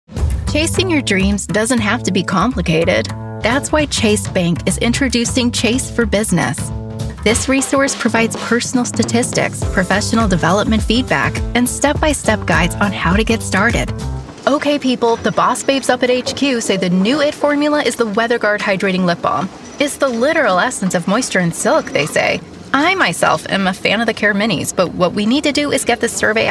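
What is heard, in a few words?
american voice actor corporate, Millennial American Female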